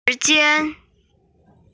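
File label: "shi2jian1", Mandarin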